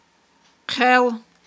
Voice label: "хъел", Aghul

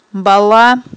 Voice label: "бала", aghul

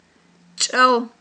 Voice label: "чIал", aghul

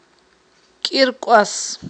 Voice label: "ккиркIвас", aghul

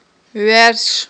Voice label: "верш", aghul

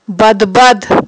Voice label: "бадбад", aghul

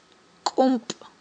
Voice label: "кIумпI", aghul

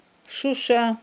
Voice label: "шуша", aghul

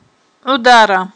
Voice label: "Iудара", aghul

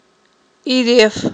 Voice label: "иреф", Aghul

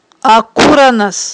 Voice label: "акуранас", aghul

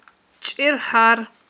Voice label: "чIирхIар", aghul

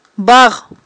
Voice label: "багъ", aghul

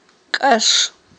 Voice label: "кIаш", Aghul

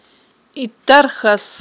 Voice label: "иттархьас", aghul

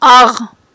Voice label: "агъ", aghul

